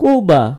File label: "cuba", id; gcompris-data; geography